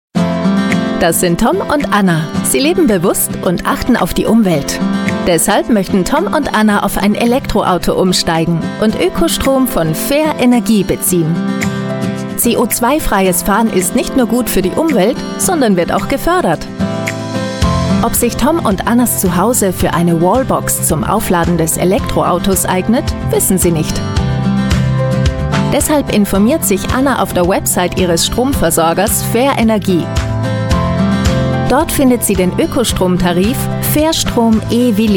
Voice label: german voice over female elearning

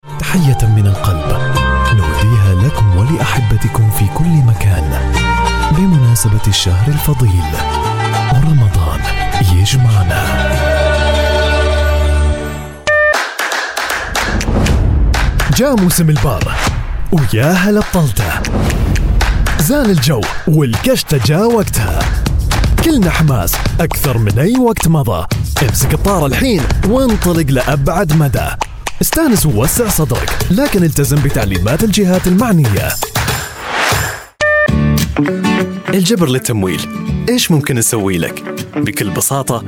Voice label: arabic voice over male commercial

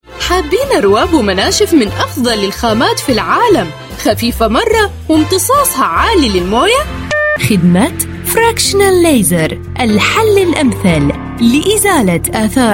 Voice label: arabic voice over female commercial

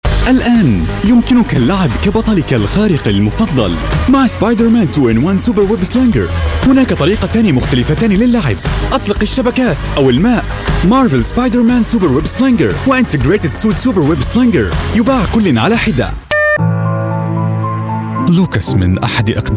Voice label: arabic voice over male commercial

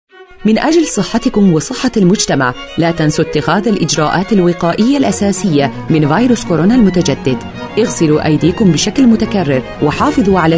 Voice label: arabic voice over female ivr

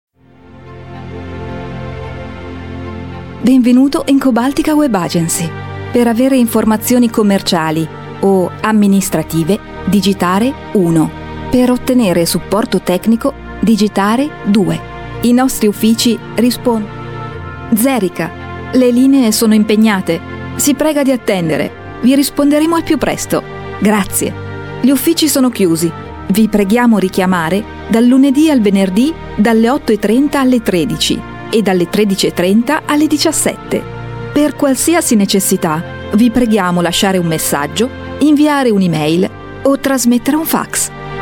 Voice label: italian voice over female ivr

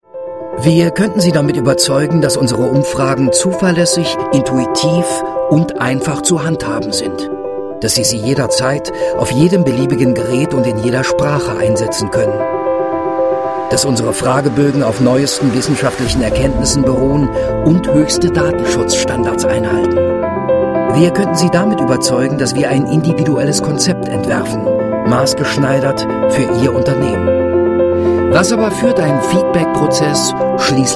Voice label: german voice over male narrator

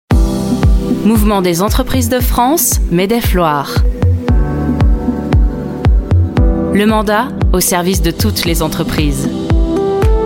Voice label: french voice over female narrator

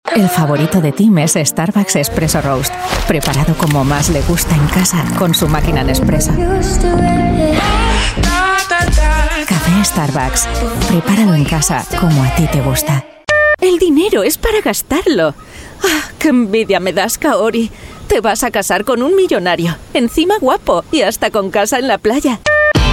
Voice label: spanish voice over female commercial

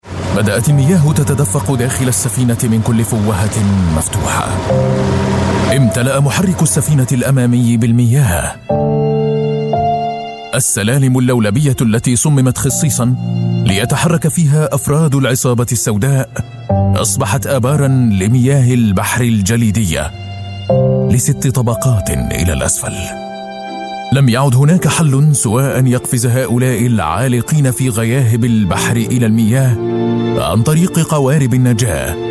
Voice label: arabic voice over male documentary

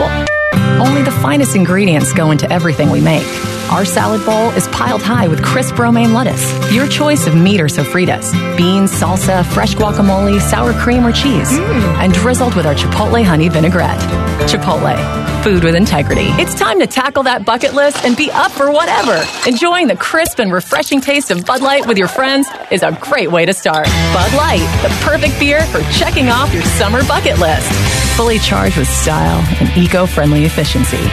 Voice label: english american voice over female commercial

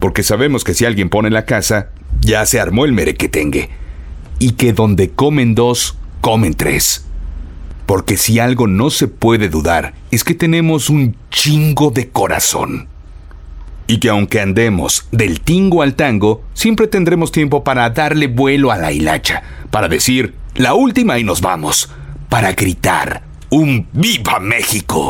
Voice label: spanish voice over male narrator

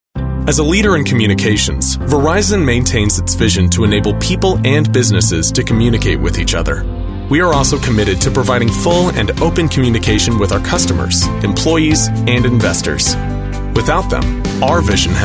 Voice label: english american voice over male narrator

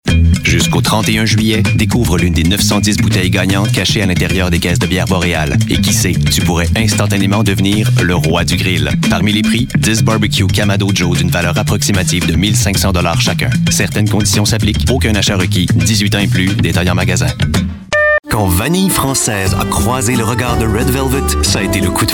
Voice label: french voice over male commercial

